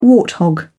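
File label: "warthog", British English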